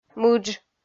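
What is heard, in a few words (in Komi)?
"мыдж", Komi, female; kpv audio dict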